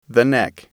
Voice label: "neck", English

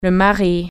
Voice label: husband, French

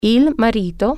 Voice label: husband, italian

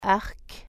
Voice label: "bow", french

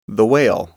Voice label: whale, englishAudio

